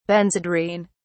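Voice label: "benzedrine", English, British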